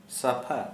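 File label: "clean", Santali, male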